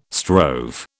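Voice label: "strove", British English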